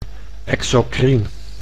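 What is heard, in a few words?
"exocrien", Dutch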